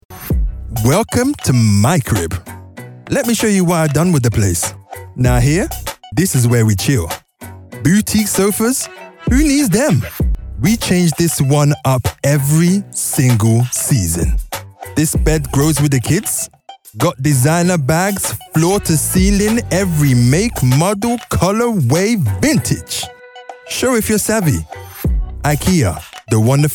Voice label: male English London accent commercial

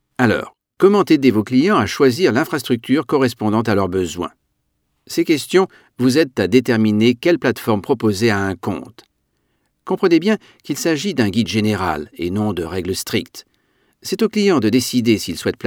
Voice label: male french e-learning course

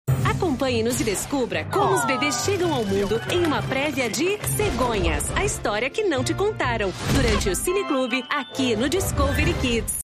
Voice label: female brazilian portuguese commercial; discovery kids

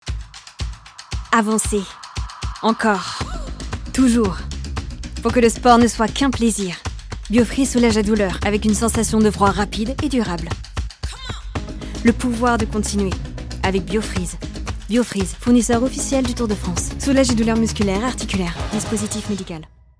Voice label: female French commercial; Biofreeze

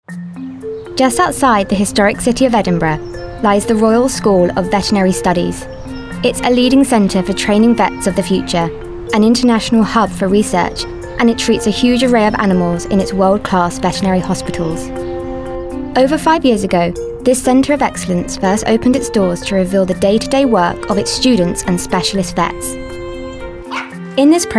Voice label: female english documentary compilation; Devine Voices

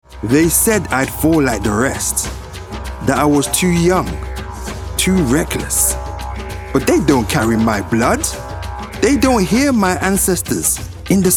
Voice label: male english multiple accents gaming compilation; english with african accent

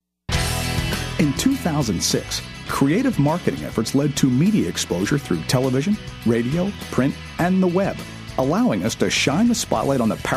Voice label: male english usa usa accent narration compilation; voices; devine voices

